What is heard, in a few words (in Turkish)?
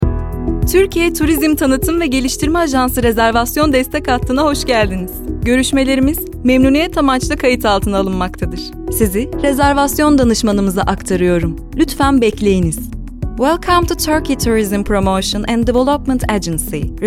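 santral, Turkish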